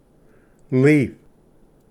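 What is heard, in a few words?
"leaf", English; ᎤᎦᎶᎦ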